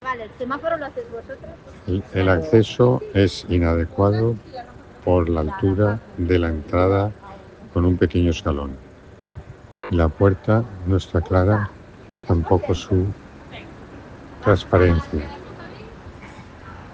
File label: Voice message